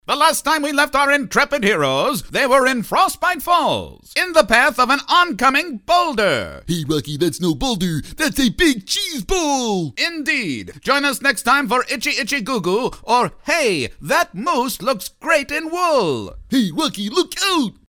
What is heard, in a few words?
ANNCR.; WILLIAM CONRAD; BULLWINKLE